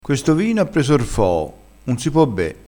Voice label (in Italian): "prende r foo", crespinese